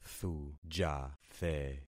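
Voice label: "thujathe", concatenated syllables; Affixing experiment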